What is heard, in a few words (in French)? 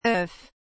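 "œuf", French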